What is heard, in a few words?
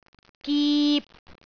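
"gip3", Cantonese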